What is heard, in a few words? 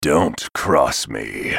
move, en US; Talon